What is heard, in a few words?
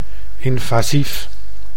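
"invasief", Dutch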